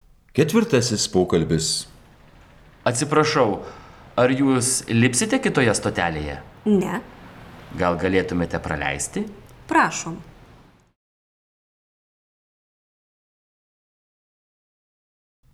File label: Dialog